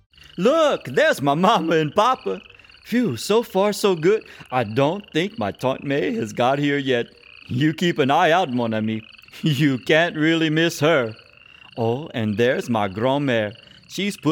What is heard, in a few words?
Narration